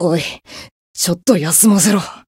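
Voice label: win barely, Japanese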